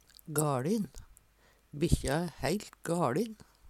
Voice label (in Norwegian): galin, Numedalsmål; Bikkja æ heilt gaLin.; gaLin var bruka om sinnskjuke før i tida